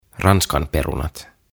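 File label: "Ranskanperunat" Finnish; Chips; OTAVA KONSERNI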